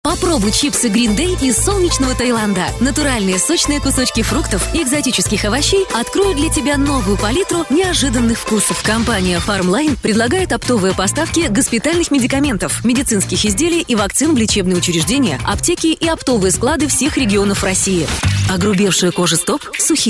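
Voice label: Russian commercial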